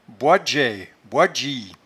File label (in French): "boidgeaie", patois jura